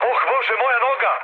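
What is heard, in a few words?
Serbian damaged pilot mood med, speech; War Thunder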